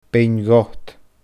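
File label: "Beinn Ghot", Scottish Gaelic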